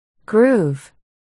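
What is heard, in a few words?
"groove", spoken word, articulation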